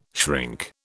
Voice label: "shrink", British